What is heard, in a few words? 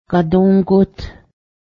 let me pass, Cayuga; gadǫ́:goht